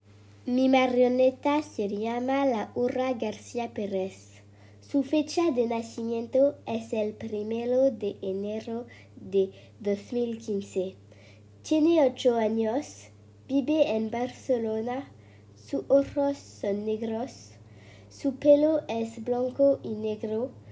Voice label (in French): Oral Espagnol en entier